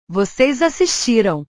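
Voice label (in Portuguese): "vocês assistiram", Portuguese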